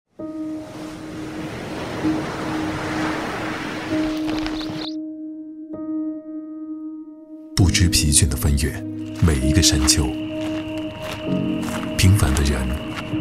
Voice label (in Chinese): 男 旁白; 导演剪辑版